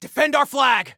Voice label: Flag Defend, Soldier Male; Soldier Male 01

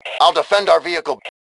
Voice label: Self Defend Vehicle, Male; T2 Male 04

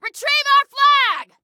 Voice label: Flag Retrieve, Female

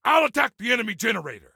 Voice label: Self Attack Generator, Soldier Male; Soldier Male 03

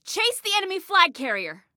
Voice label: Attack Chase, Female

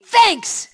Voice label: Team Thanks, Female

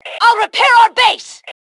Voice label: Self Repair Base, Female

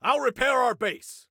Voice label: Self Repair Base, Soldier Male; Soldier Male 02

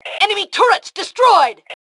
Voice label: Enemy Turrets, Female

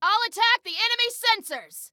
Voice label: Self Attack Sensors, Female

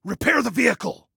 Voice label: Repair Vehicle, Male

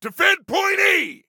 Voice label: DEFEND, Male; T2 Male 05

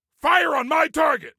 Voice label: Target Fire On My, Soldier Male; Soldier Male 03

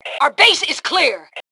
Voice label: Base Clear, Female

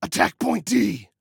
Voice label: ATTACK, Male

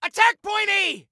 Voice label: ATTACK, Male; T2 Male 03

